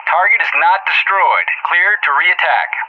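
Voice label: "target not destroyed", English; JTAC; Messages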